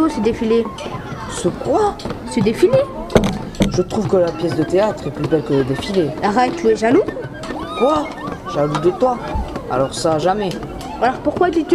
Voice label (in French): scene 4 deux hommes à la fenetre